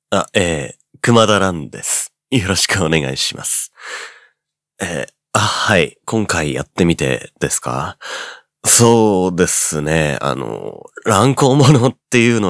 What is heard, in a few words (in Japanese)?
フリートーク